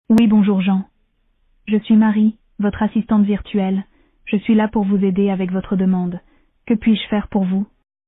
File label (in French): Voix de synthèse; voxinia